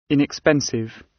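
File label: "inexpensive" British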